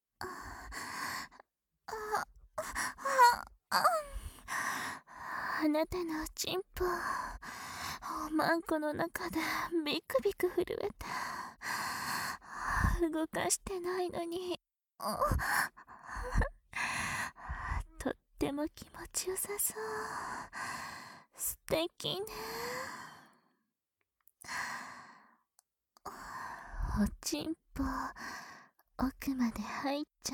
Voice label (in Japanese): 囁き重視編集 効果音あり大きめ, ASMR; 挿入したままブレインマッサージで膣オナホ射精